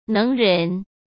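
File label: "能人", Mandarin Chinese; hsk; chinese-flashcards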